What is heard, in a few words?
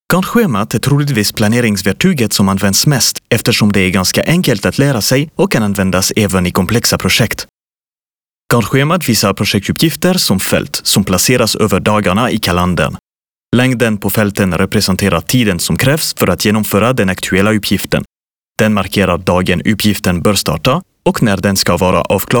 swedish e-learning